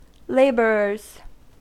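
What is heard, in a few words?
"laborers", American English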